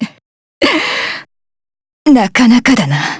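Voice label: Vox Dead, Japanese; Lucikiel; kingsraid; voices heroes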